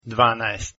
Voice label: 12 croatian